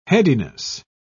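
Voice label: "headiness", British English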